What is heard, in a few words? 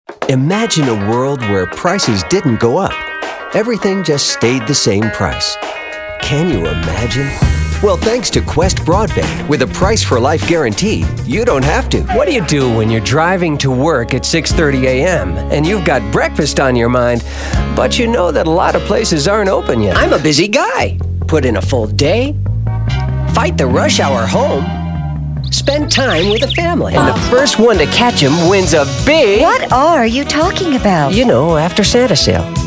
Commercial